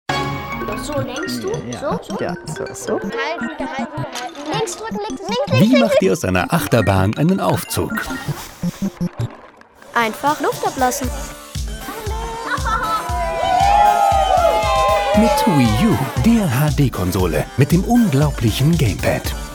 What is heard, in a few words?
tv spot; nintendo